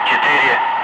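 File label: "5", spoken; Ka-50; Wingman; Digits; DCS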